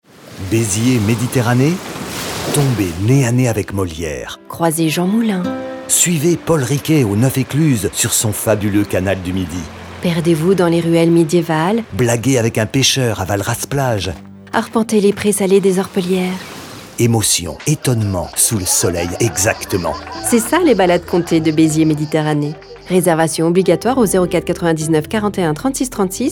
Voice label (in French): spot radio; beziers